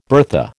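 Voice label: "bertha", American English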